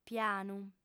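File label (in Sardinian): "pianu", female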